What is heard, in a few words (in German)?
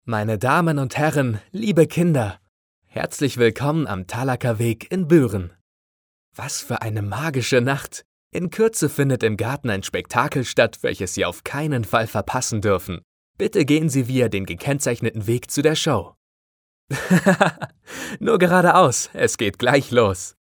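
german male; lektoring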